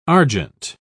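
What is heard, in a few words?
"argent", English, British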